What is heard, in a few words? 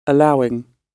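"allowing", British English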